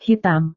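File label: "hitam", Indonesian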